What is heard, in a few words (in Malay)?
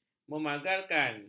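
"memagarkan", Malay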